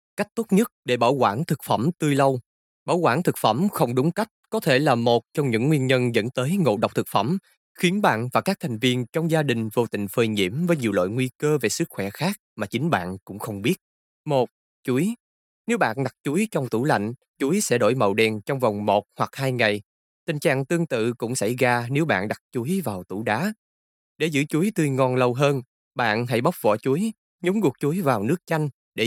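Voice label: Vietnamese Vietnam male narrative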